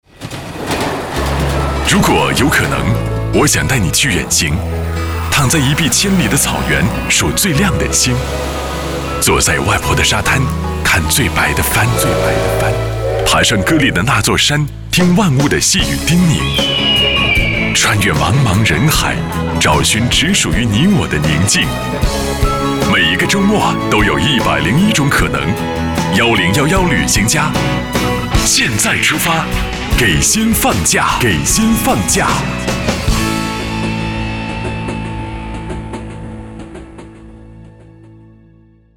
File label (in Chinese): 男 片头片花; 旅行家